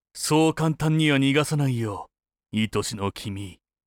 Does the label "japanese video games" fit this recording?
Yes